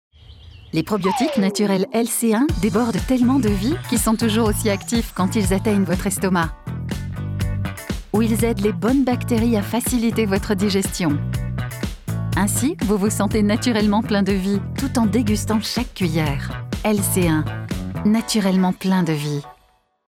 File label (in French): pub voix off; nestle lc1